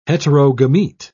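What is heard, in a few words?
"heterogamete", English, British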